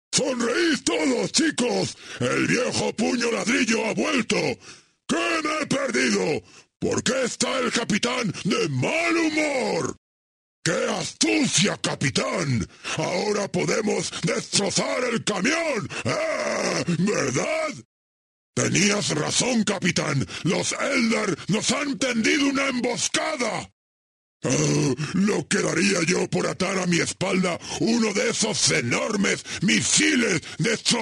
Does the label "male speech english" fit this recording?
No